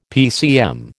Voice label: "PCM", American